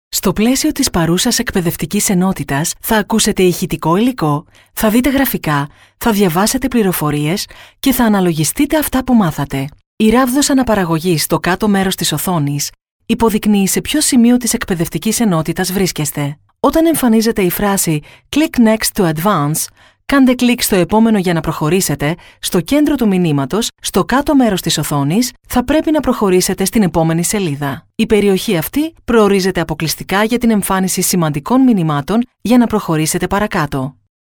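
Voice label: Greek narration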